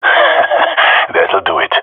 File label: compliment, jungle male epic; agents